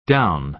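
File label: "down", American English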